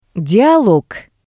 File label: Dialog